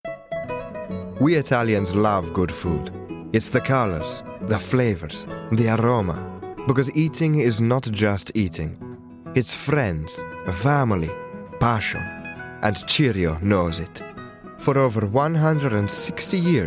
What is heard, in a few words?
English